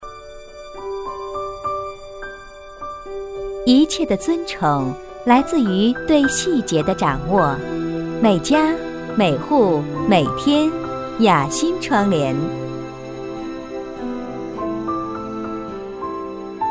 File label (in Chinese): 女 广告 窗帘; 雅馨窗帘